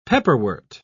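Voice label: "pepperwort", British English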